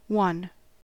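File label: "one", US English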